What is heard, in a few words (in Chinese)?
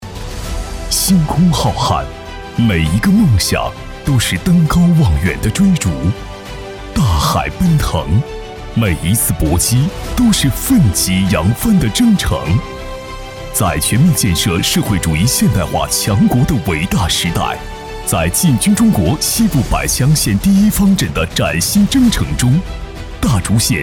男 宣传片 刚毅浑厚